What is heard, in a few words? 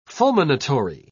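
"fulminatory", British English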